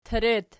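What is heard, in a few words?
"teret" nominative, Archi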